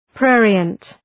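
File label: "prurient", English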